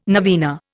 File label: "novena", Indian